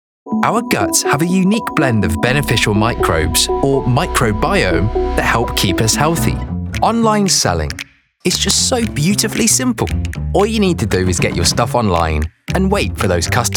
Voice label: Corporate ENG